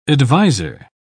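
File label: "advisor", British English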